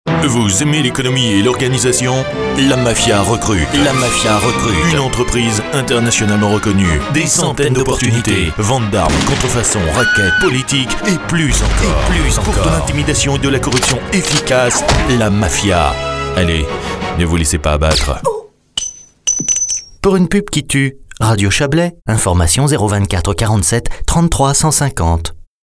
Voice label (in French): radio pub spot